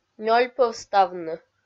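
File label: "нёльпӧвставны", Komi, female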